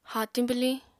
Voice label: "haatiñ bwliñ", Apatani

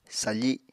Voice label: "safi", Apatani

